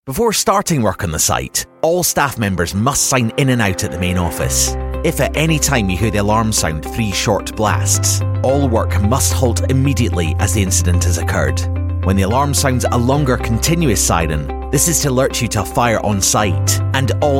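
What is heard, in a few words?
scottish voice elearning, Scottish Voiceover (Edinburgh)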